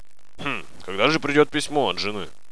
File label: monologue; svencoop; paranoia; officer